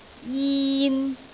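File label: "jin3", Cantonese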